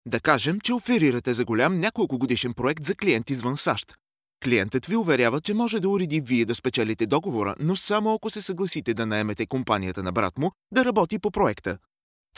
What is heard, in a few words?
Bulgarian audiobook